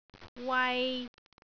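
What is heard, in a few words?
"wai3", Cantonese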